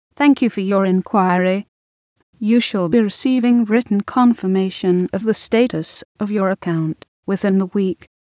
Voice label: uk f